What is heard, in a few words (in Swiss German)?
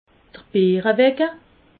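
"beerewecke", Alsatian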